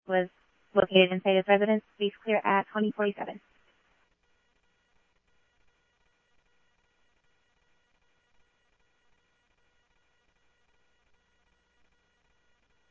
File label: Tempe Police, scanner audio; TEMPE PD A04